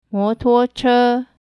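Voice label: motuoche, motorcycle, mandarin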